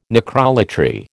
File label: "necrolatry", American